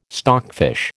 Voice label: "stockfish", American

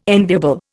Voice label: "enviable", Indian

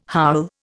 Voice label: "howl", Indian

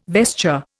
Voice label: "vesture", Indian

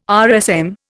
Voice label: "RSM", Indian

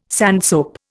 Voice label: "sandsoap", Indian

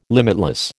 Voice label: "limitless", American

